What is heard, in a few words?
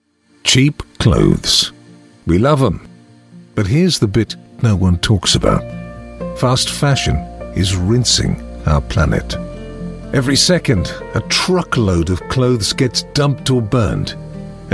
explainers